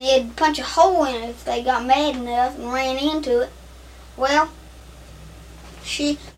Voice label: Conversation with 13 year old white male West Virginia; loc american english dialect recordings